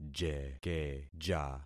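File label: "jekeja", concatenated syllables; Affixing experiment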